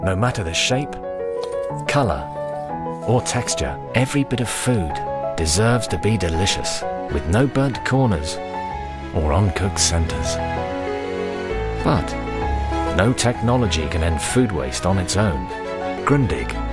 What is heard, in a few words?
male english compilation